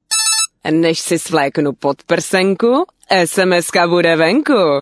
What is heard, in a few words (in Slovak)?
sms hlásky cz